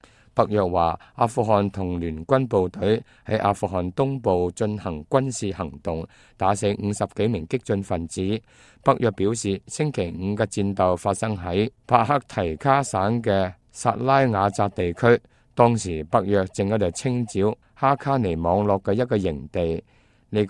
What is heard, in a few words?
cantonese, news